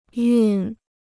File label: "熨", Chinese